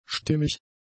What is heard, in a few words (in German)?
"stimmig", German; adjectives